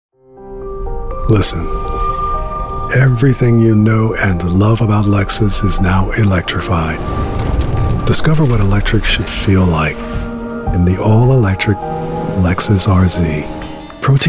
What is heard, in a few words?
Commercial